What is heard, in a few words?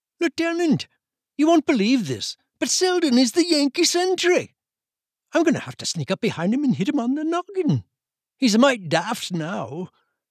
irish